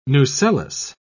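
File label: "nucellus", British English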